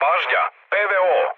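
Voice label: Serbian damaged aa mood low, speech; War Thunder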